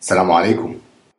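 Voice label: hello, Egyptian Arabic; ألسّلام عليكم; (is salām 'alaykum)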